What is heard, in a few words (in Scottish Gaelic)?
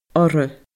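"orra", Scottish Gaelic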